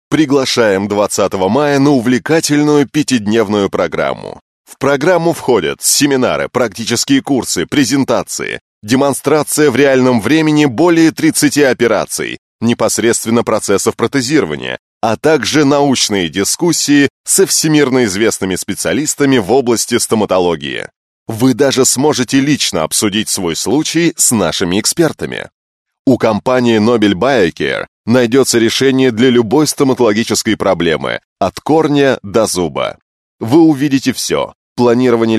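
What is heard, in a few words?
Russian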